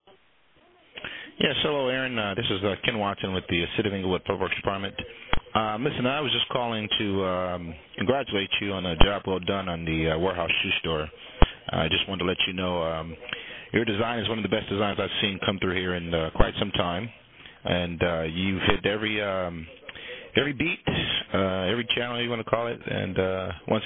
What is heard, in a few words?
testimonial